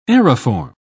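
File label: "aeriform", British English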